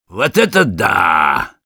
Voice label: positive vocalization, ru; Sniper

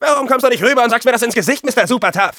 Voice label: taunts, de; Scout

